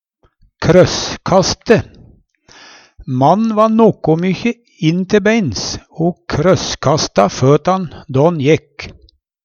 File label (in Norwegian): krosskaste, Numedalsmål